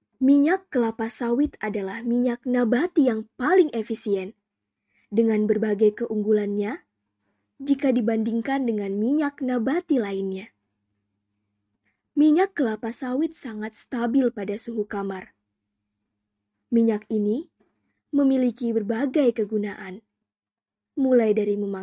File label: Formal Indonesia